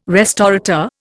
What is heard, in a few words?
"restaurateur", Indian